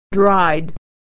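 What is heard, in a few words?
"dried", American English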